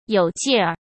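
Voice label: "有劲儿", Mandarin Chinese; hsk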